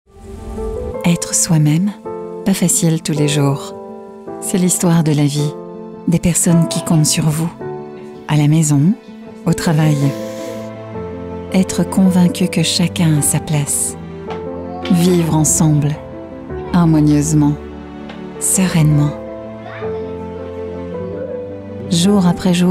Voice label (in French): film video sophrologue, voix off femme